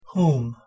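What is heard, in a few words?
"whom", English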